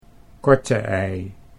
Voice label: "korte ei", Dutch